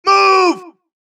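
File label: suppress, dialogue, Allies; mohaa; Mission 2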